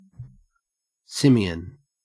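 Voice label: "simian", Australian English; pronunciations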